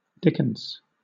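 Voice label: "dickens", British English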